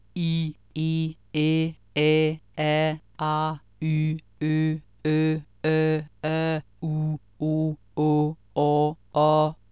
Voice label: danske vokaler, [i: e: ɛ: a: ɑ: y: œ: u: o: ɔ: ɒ: ʌ:]; 6.5 Vokalernes akustik; vokalerne i fig. 6.18; KAPITEL 6 - VOKALER